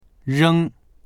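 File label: "reng1", Mandarin Chinese; 扔; 投げる、捨てる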